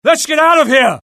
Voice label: alert, UK; mohaa; dialogue

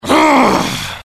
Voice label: anger, dialogue IT; mohaa; attack

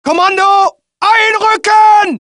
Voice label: German; mohaa

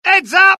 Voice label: alert, dialogue UK; mohaa

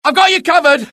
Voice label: alert, dialogue UK; mohaa

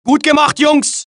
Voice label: German; mohaa

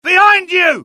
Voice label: alert, UK; dialogue; mohaa

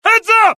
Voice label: alert, dialogue generic US; mohaa

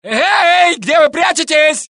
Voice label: Russian; mohaa

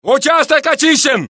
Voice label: Russian; mohaa

